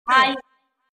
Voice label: hi notification ringtone

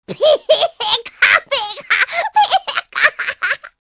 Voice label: laughing; pikachu

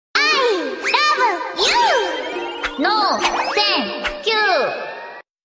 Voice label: no thank u yell; anima

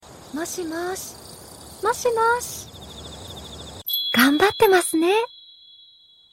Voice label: moshi moshi pseudo asmr; shinobu

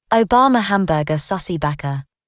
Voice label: female; obama hamburger sussy baka

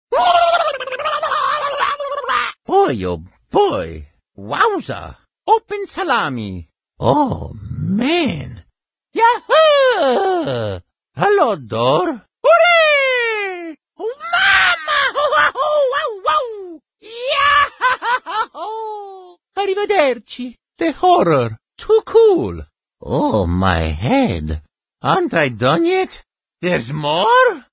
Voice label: funny voices; mario sunshine

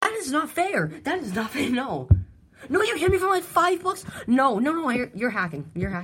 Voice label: rage

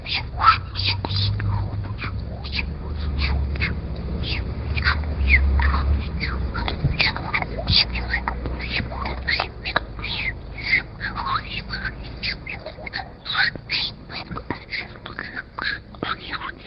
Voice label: mumbling half speed

